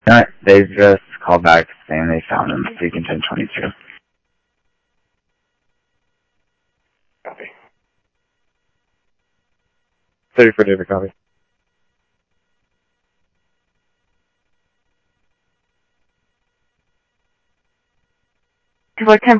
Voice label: Phoenix PD, scanner audio